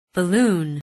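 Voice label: "balloon", English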